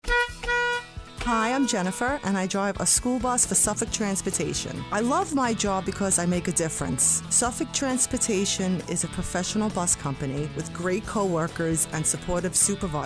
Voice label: bus spot